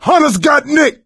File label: hunter pounced, survivor voice; Left 4 Dead 2; coach